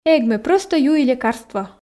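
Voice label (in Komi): "эг ме прӧстӧ юи лекарство", Komi, female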